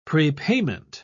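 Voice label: "prepayment", British English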